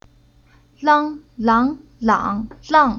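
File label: "Lang", Chinese syllable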